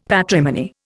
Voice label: "patrimony", Indian; Dictionary